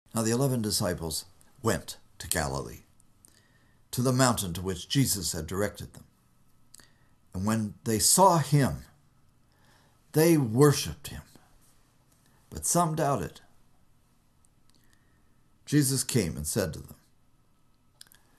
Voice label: storytelling